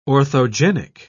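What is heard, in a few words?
"orthogenic", British English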